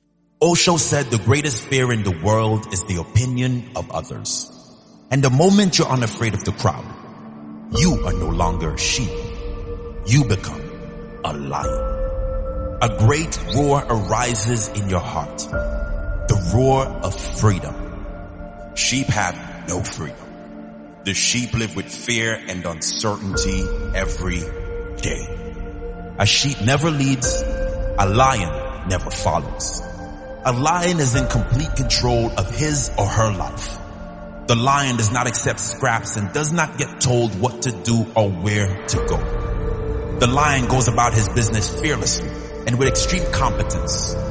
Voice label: Powerful Motivational Speech; LION MENTALITY